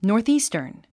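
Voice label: "northeastern", English word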